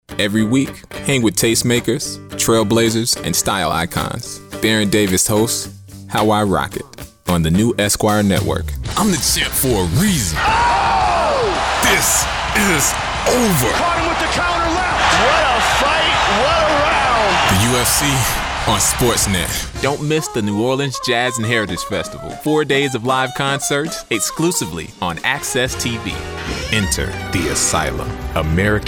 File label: Promo